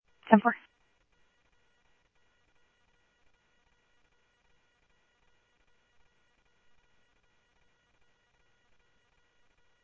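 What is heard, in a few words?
police department, scanner audio; PHOENIX PD-200 A; ThompsonPeakHigh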